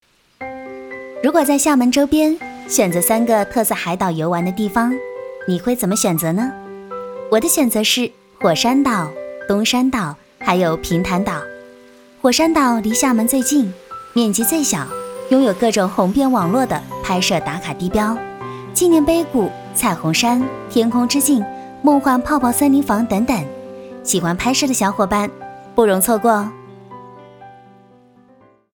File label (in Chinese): 甜美温馨; 海岛游玩